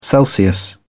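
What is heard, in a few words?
"Celsius", British English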